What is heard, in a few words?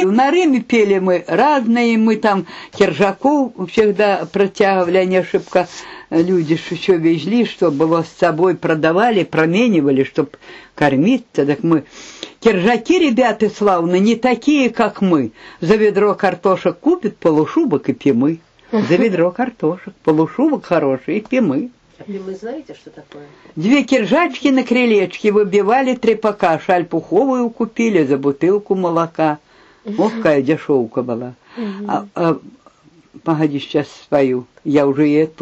middle ob dialect; кержаки